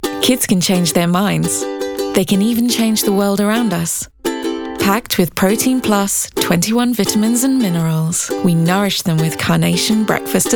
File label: female english breakfast essentials commercial; english southern accents; carnation